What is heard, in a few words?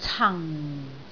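"can4", Cantonese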